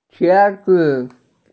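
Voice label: "qiezi", Mandarin Chinese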